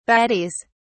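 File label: "baddies", British English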